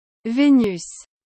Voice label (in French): Venus fr